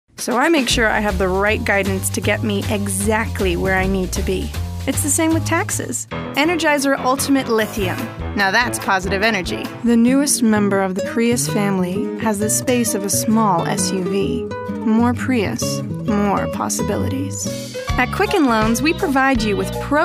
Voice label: Commercial